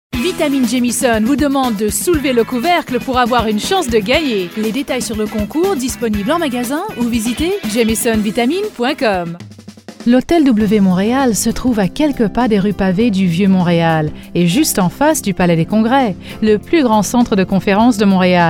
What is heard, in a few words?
French Commercial